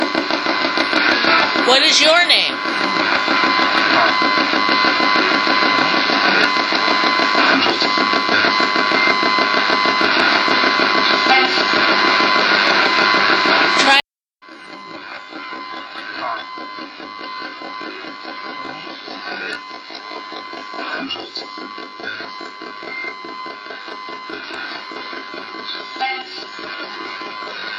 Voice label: radio voices more names